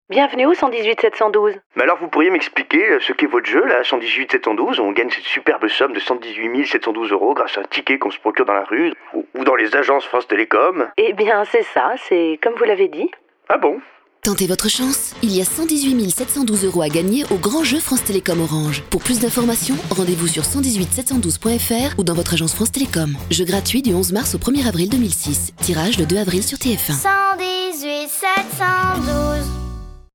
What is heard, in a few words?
radio